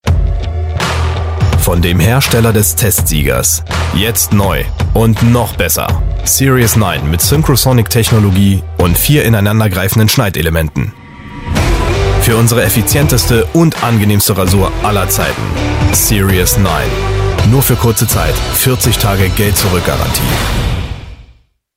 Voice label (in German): Sprechprobe Werbung mit Musik; Gillette